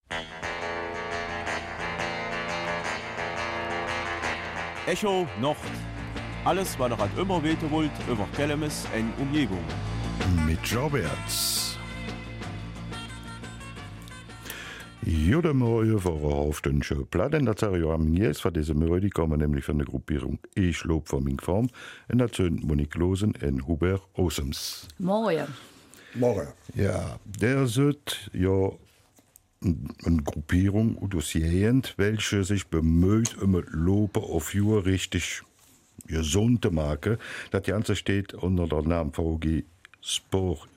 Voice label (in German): Kelmiser Mundart; Ich laufe für meine Form